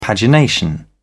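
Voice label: "pagination", British English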